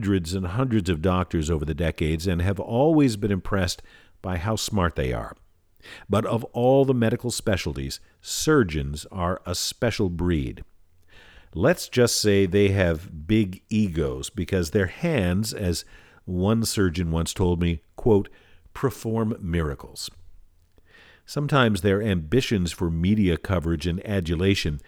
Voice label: Audiobook sample chapter